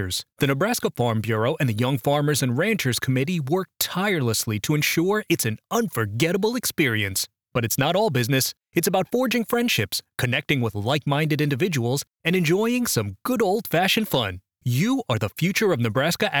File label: Event Conference Intro Host